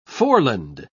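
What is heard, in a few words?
"foreland", British English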